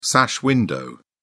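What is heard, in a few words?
"sash window", British English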